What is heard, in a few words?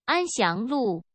mandarin; ann siang road; singapore